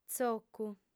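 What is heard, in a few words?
"tzocu", Sardinian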